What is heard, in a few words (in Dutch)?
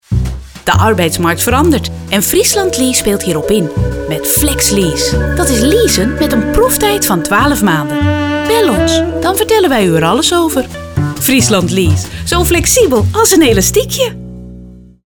Commercial Nederlands; Friesland-Lease